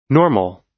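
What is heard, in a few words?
"normal", US English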